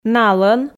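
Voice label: "налӧн", Komi, female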